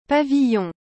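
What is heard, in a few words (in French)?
"pavillon", French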